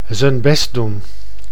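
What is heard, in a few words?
"zijn best doen", Dutch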